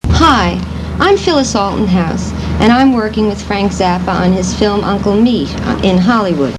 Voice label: presentation